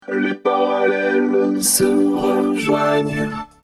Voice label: voice fx